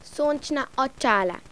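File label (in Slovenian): "soncna ocala", Slovenian; tdegames; ktuberling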